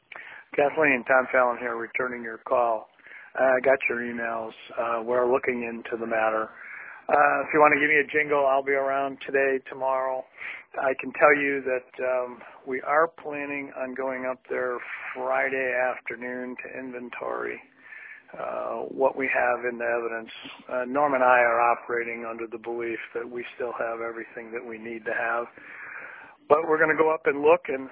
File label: Voicemail; Steven Avery; Kathleen Zellners Era